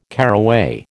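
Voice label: "caraway", American English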